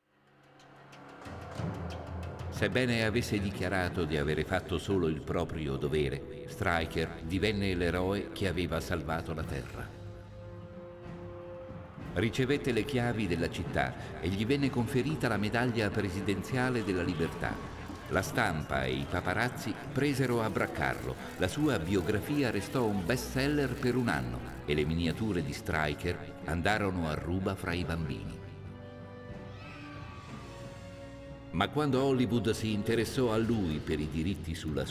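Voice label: music voice over endings Italian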